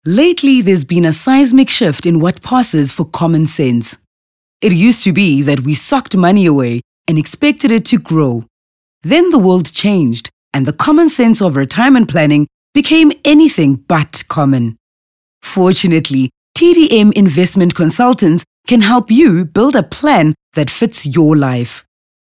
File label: English Corporate